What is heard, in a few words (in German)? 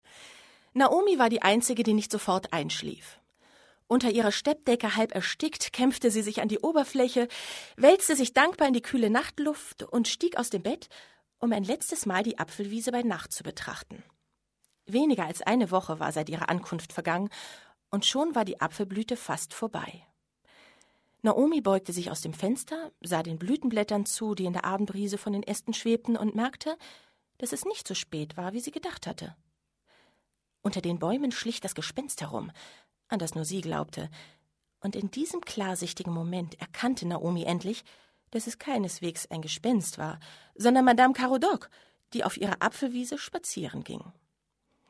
Lesung, Jugendbuch